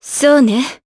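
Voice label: Vox, voices, Japanese; Maria; kings raid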